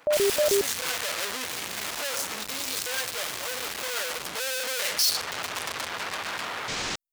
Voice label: radio; usmc